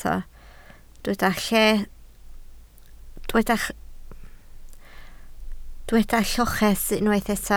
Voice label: "lloches", Welsh